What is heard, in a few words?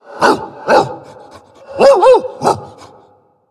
Undead Beast Emote HAPPY NEW YEAR; Arfus